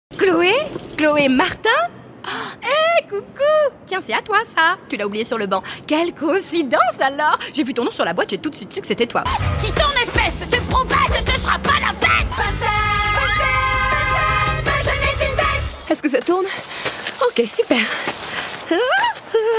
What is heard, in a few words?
french voice character